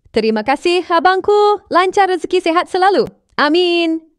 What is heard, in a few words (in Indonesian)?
terimakasih abangkuh europe girl